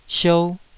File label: "siou2", Taiwanese syllable